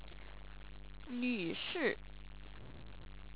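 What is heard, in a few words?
"nushi", Chinese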